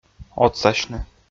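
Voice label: "отсасьны", Komi, male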